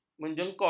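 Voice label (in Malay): "menjengkot", Malay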